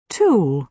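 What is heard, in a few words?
"tool", British English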